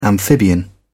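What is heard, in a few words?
"amphibian", English, British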